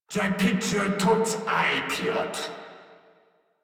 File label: Patrol Crewmen en; Warframe; Corpus Crewmen; Crewman D